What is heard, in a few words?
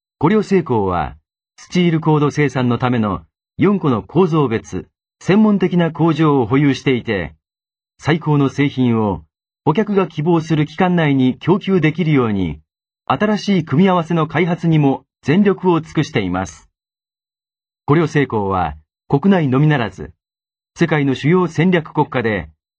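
Japanese, male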